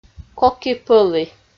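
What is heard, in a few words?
"коки-пӧлі" Komi, female; audio dict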